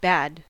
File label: "bad", us